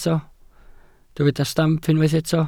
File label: "stamp", welsh